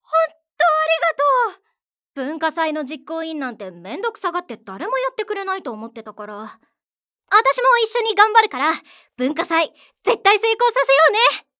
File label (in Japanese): 活発女子高生